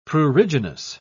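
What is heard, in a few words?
"pruriginous", British English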